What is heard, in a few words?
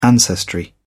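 "ancestry", English, British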